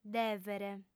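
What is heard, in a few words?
"dèvere", Sardinian, female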